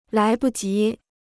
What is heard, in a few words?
"来不及", Chinese